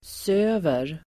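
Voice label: "söver", Swedish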